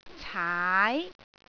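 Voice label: "caai2", Cantonese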